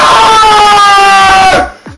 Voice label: nooooooo rage; rage guy

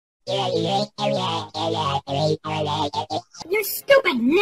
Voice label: alien talking

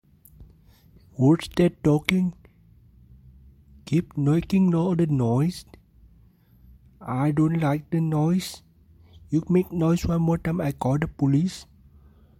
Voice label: india teacher warning

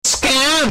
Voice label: scaaaaam distorted funny

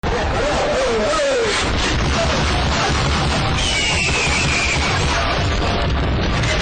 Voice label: Spanish fight

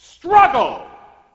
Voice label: struggle announcer

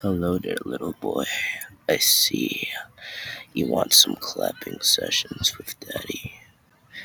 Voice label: freaky voice

